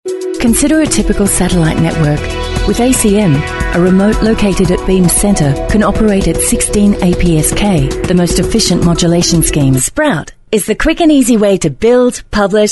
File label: female talent corporate